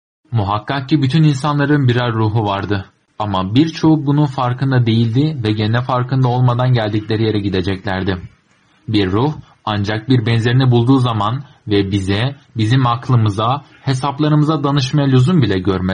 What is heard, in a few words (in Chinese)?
土耳其语男 外语 小语种 样音